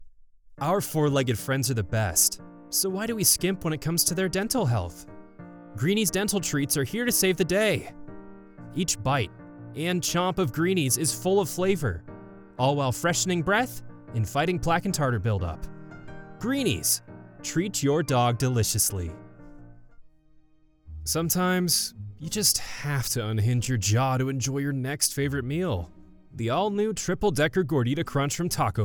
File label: Commercial Sampler, Voice Actor